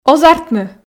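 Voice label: "оз артмы", Komi, female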